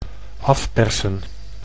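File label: "afpersen", Dutch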